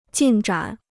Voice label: "进展", Chinese